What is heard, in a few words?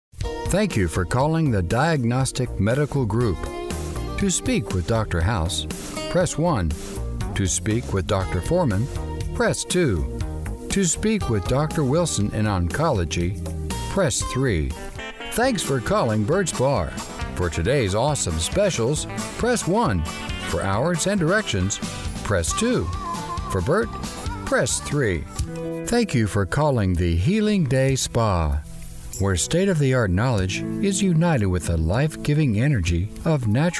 Phone Hold IVR Male